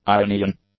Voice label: "Ionian", english; adjectives